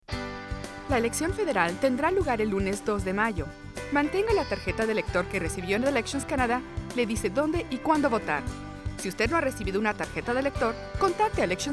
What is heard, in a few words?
spanish